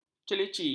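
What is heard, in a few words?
"celici", Malay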